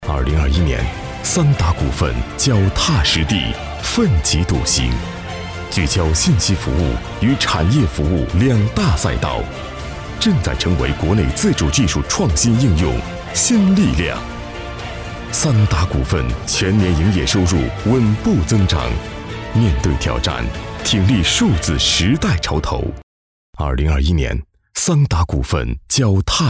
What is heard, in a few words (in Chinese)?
宣传大气企业